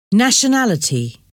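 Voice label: "nationality", English word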